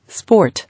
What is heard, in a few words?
"Sport", English